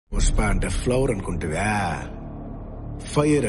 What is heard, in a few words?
dialogue telugu; pushpa ante flower ankuntiva fireuu